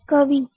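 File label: "kavi" Hindi, female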